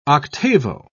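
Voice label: "octavo", English, British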